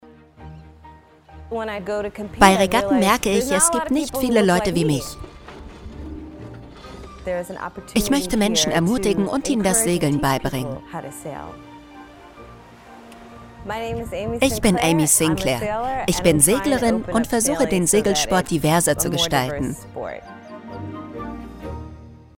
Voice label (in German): doku